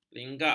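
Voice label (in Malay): "lenggak", Malay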